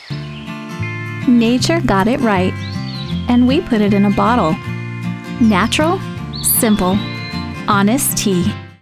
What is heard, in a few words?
Authentic Conversational Genuine